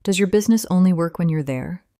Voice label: voice melodic warm